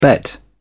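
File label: "bet", British English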